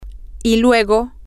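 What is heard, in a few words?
and later, Spanish, female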